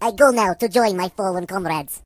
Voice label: "Byebye", Russian